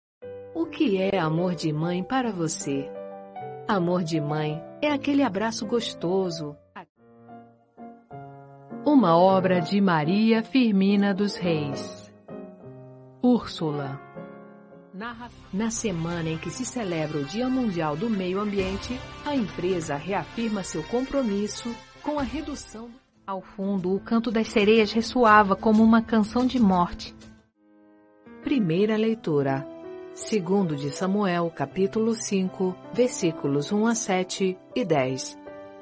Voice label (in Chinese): 葡萄牙语女 外语 讲述; 小语种